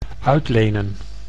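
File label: "uitlenen", Dutch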